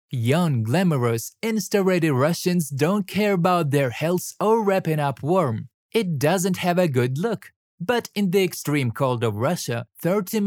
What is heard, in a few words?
Medication ad in English